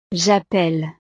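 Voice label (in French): "jappelle", French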